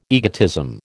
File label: "egotism", American